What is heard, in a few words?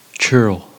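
"churl", English, US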